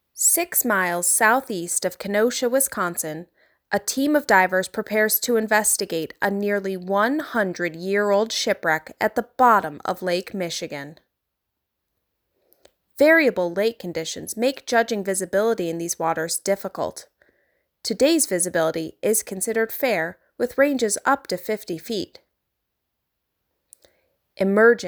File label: Narrator; Shipwrecks